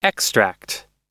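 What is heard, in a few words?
"extract", US English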